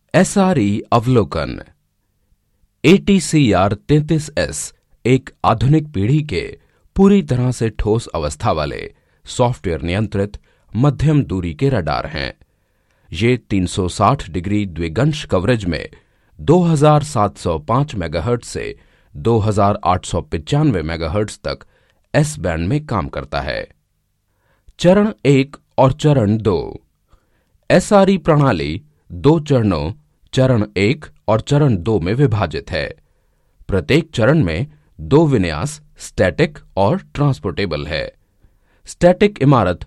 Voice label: E-Learning hindi Voice Artist, male voice